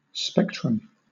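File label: "spectrum", British English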